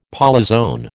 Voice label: "polyzoan", American

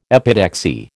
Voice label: "epitaxy", American

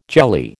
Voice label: "jelly", American

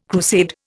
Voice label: "crusade", Indian

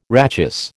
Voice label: "rachis", American

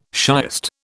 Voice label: "shiest", British

